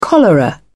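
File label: "cholera", British English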